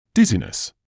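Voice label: "dizziness", British English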